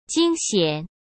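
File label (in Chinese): "惊险", Mandarin Chinese; chinese-flashcards; hsk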